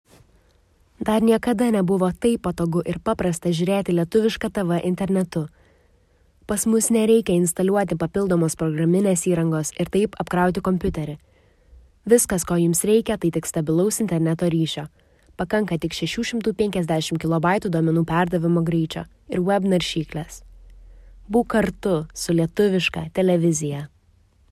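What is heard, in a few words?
Lithuanian, general; GoLocalise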